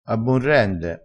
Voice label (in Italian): "a bon rende", Crespinese